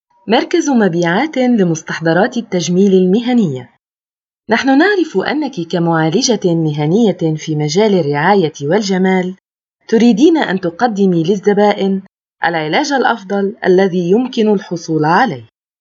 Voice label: arabic promos

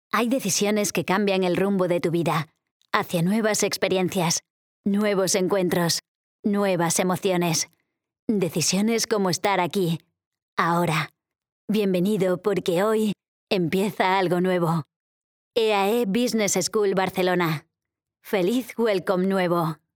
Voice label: spanish explainer videos; actriz de doblaje española

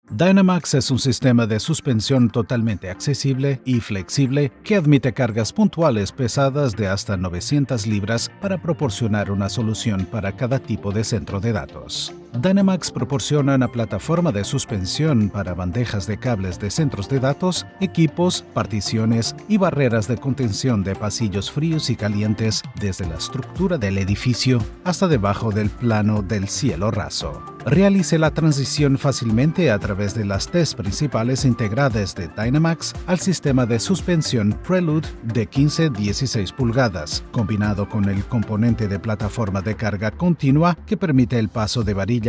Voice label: spanish e-learning

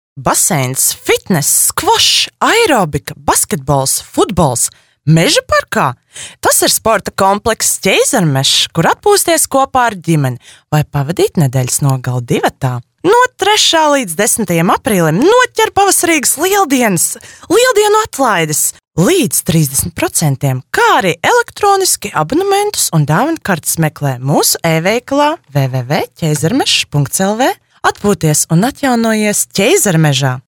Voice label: latvian commercial

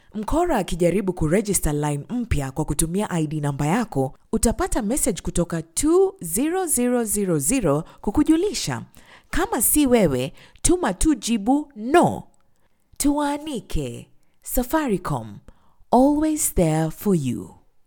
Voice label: swahili promos

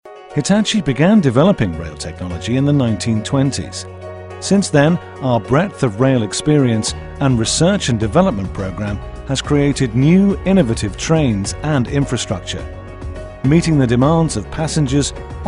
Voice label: english british documentaries

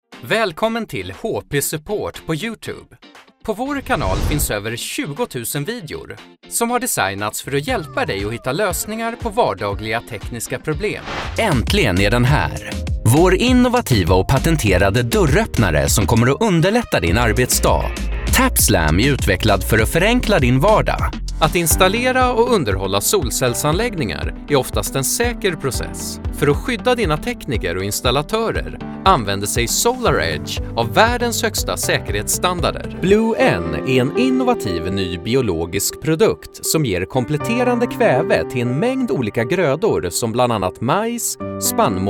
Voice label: swedish corporate videos, voice actor; professioneller schwedischer; Unternehmensvideos

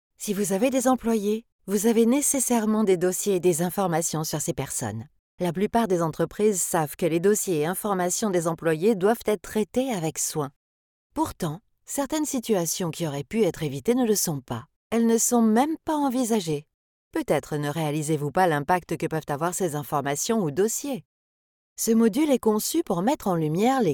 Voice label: french training e learning

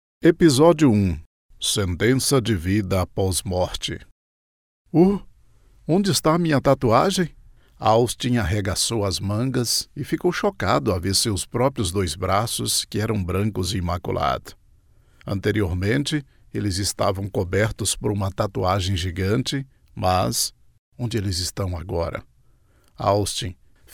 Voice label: portuguese brazilian podcasts

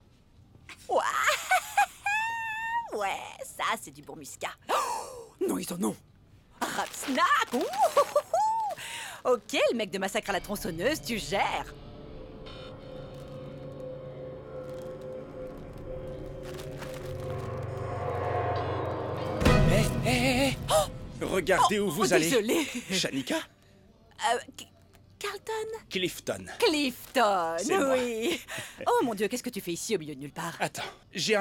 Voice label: french others; native French VO artist

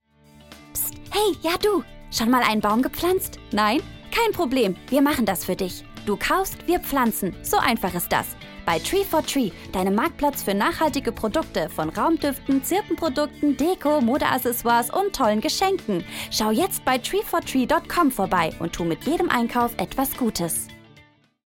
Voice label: german promos

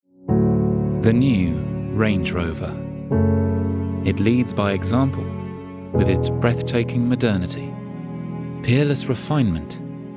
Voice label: English British automotive

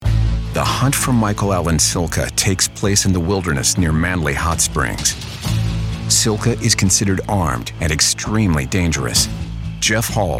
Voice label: english american narration

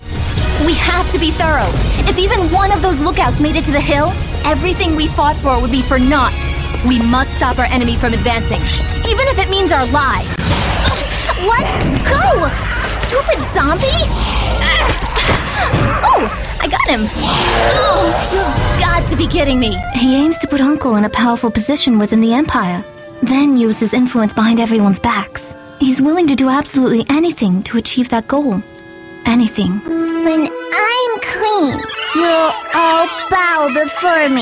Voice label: english american video games

